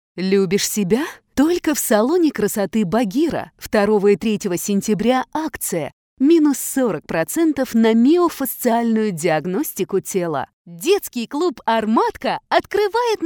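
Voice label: Russian Russia female sales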